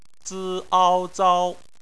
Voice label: "zao", Chinese